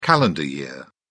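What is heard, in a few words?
"calendar year", English, British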